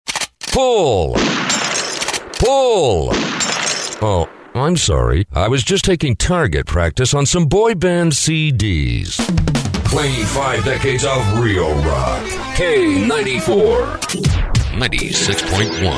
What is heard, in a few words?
Radio Imaging